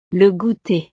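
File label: "le gouter", French; snacks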